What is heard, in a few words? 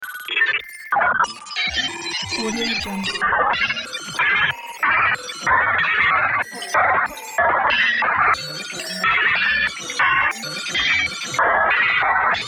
robot voice